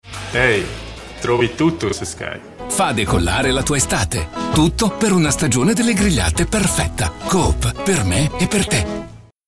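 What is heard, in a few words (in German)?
Werbung, Italian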